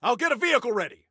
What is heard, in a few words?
Self Task Vehicle, Soldier Male; Soldier Male 02